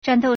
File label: "zhan4dou4", Chinese